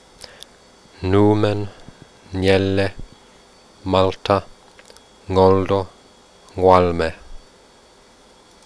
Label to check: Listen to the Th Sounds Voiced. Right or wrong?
Wrong